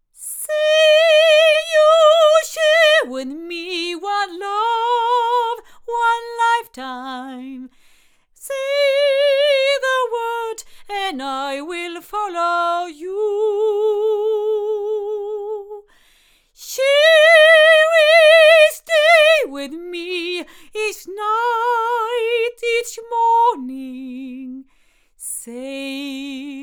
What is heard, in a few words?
A48 cardioid female; ADK